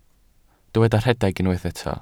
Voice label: "rhedeg", Welsh